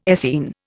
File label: "Essene", Indian